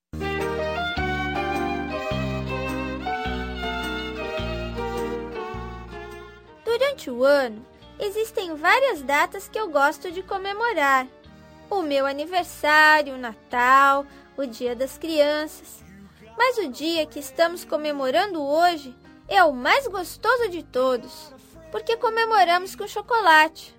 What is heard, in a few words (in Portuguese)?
Pascoa Social M Infantil